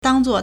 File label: "dang4 zuo4", Chinese